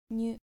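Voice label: "nyu", Japanese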